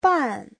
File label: "ban4", Chinese